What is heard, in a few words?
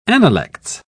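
"analects", British English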